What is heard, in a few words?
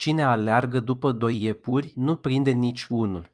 romanian; ro-romanian